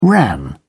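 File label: "ran", British English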